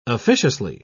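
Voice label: "officiously", British English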